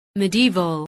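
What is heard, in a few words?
"medieval", English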